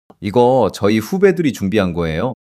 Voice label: shy